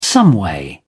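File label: "someway", British English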